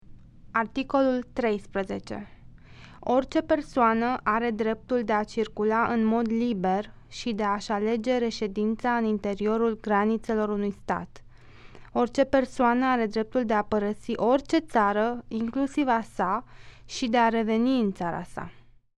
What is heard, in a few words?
Romani